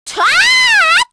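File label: Vox Casting, Korean; Hanus; kingsraid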